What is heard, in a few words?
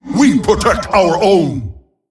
Male Tol'vir Attack